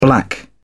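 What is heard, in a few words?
"black out", British English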